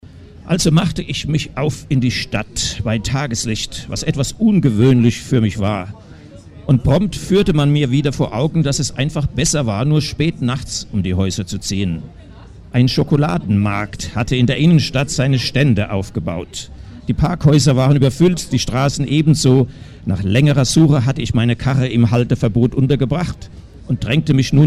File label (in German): Lesung; Amor und Pfeile